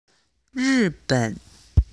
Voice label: chinese pronunciation zhi chi shi ri